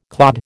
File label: "clod", American